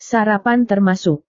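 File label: "sarapan termasuk", Indonesian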